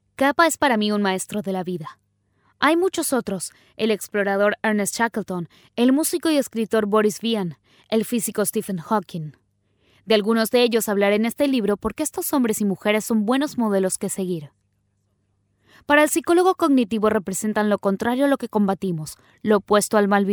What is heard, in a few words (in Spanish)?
Audiolibro neutro